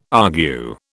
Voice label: "argue", British